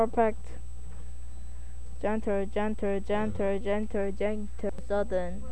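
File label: "gentle", spoken word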